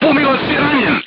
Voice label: voice message charger wounded, serbian crew tanks

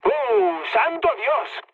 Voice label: trick, mood med, speech; War Thunder

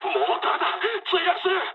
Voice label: aircraft destroyed mood low, speech jp

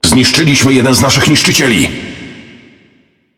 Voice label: Speech Destroy Ally Destroyer, PL; World Of Warships

